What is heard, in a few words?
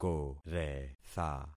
"koretha", concat syllables; Affixing experiment